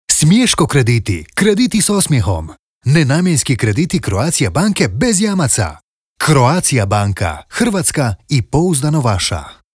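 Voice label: croatian male commercial, voice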